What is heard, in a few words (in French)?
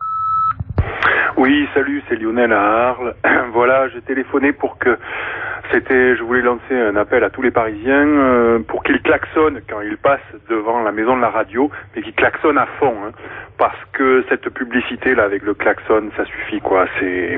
Pubs France Inter, repondeur